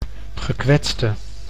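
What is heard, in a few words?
"gekwetste", Dutch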